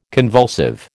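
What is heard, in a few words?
"convulsive", American English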